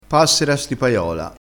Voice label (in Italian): "passera stipaiola", crespinese, vocabolario dialettale